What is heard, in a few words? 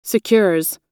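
"secures", US English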